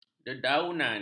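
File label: "dedaunan", Malay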